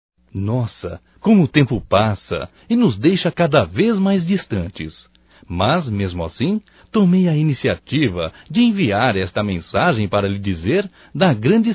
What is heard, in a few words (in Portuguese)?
SAUDADES ROMâNTICA (M)